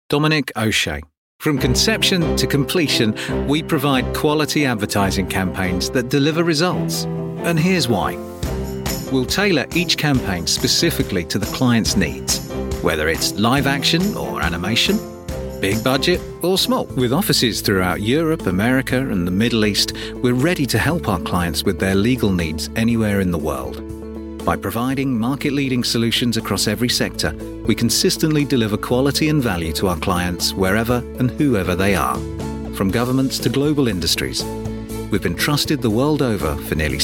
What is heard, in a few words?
corporate